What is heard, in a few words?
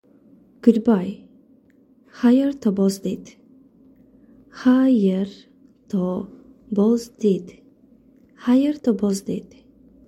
Good Bye, Tajik